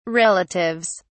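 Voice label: "relatives", British English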